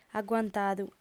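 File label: "aguantadu", lingua sarda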